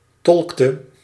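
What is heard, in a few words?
"tolkte", Dutch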